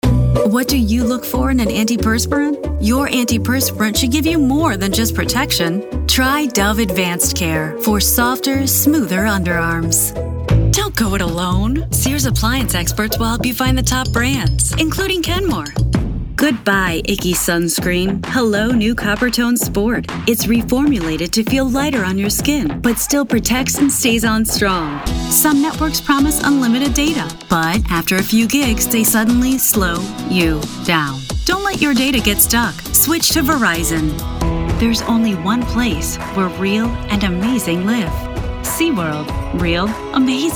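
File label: commercial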